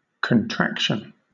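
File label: "contraction", British English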